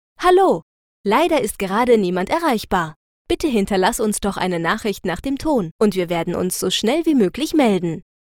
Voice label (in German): wir ansage